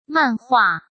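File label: "漫画" Mandarin Chinese; hsk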